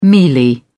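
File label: "mealy", British English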